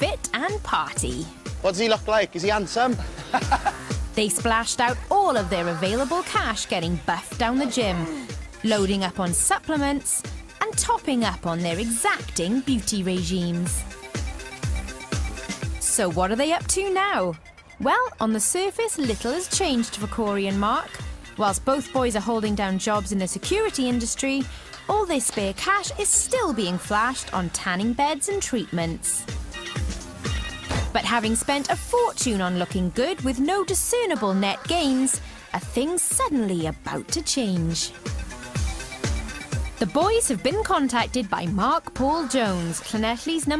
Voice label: Young Welsh And Pretty Skint Doc